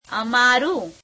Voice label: gujarati our; અમારું [ameāreum]

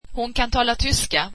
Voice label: swedish she can speak German

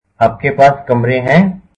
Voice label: Do you have rooms available, hindi

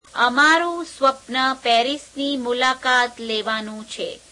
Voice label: gujarati our dream is to visit Paris

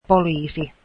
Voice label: police, finnish

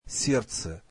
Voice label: russian heart

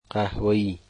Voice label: "brown", Dari

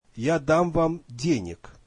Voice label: russian I will give you money

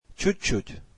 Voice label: russian Just a little

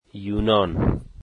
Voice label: Greece, dari

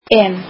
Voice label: icelandic but

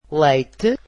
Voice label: portuguese milk

